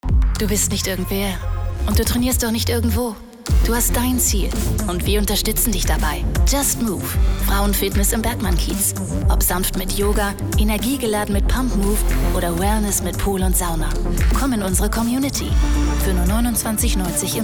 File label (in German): Werbung Fitness